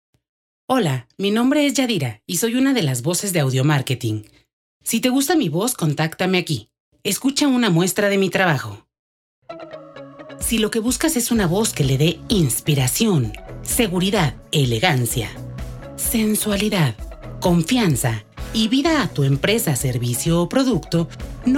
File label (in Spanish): mexicana; Locutores